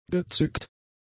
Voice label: "gezückt", German; adjectives

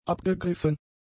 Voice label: "abgegriffen", German; adjectives

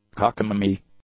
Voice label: "cockamamy", English; adjectives

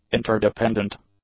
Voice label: "interdependent", English; adjectives

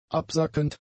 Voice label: "absackend", German; adjectives

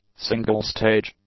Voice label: "single-stage", English; adjectives

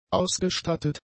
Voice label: "ausgestattet", German; adjectives

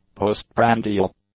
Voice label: "postprandial", english; adjectives

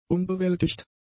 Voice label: "unbewältigt", German; adjectives

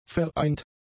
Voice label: "vereint", German; adjectives

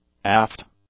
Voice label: "aft", English; adjectives

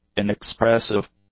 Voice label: "inexpressive", English; adjectives

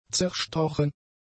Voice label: "zerstochen", German; adjectives

